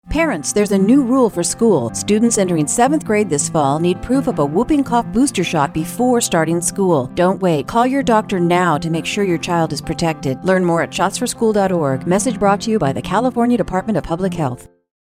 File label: Pertussis Radio PSA English